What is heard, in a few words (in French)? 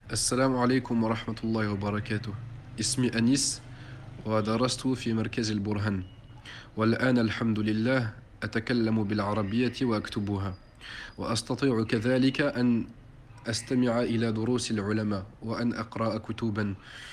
Anis en arabe; je comprends vraiment l'arabe